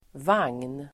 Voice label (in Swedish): "vagn", Swedish